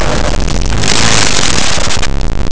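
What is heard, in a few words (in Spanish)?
"nina", Spanish, nasals; laboratori fonetica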